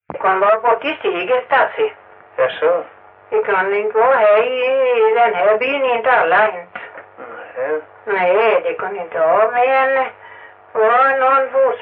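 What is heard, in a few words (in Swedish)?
Stenåsa Öland, svenska sagor dialekter; Folkminnen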